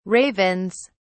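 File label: "ravens", British English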